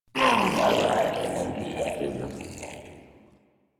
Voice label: Death Ghoul Saw, en; Grineer Ghoul Sawman